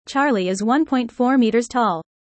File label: user fast; how tall